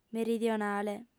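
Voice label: "meridionale" Sardinian, female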